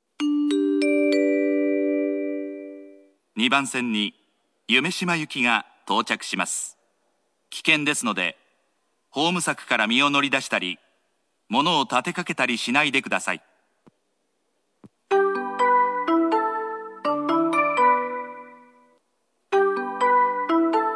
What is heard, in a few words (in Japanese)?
夢洲行き, 中央線車内放送・駅放送; 夢洲行き2